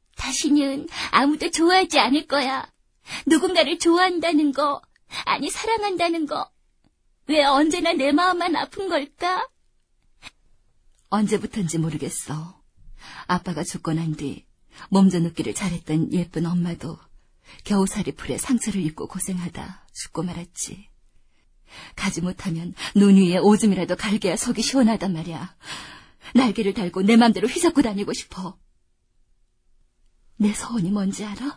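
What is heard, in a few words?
Korean female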